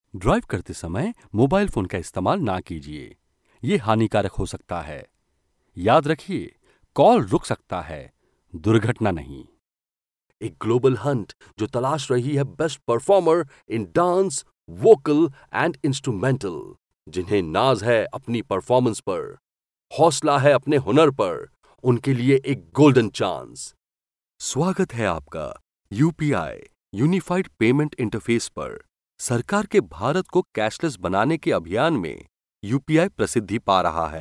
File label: Indian, Male Voices, voiceover